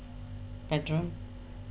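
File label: "adieu", British, female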